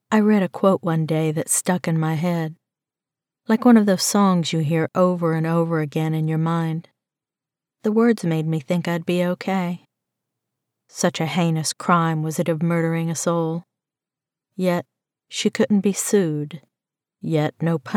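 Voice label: First Person Psychological Thriller Supernatural, female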